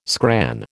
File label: "scran", American